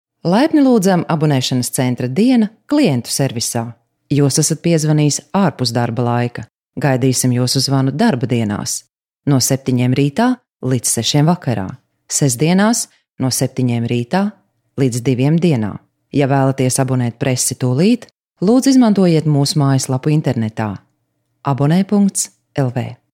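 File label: Latvian, female, interactive voice response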